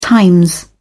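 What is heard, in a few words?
"times", British English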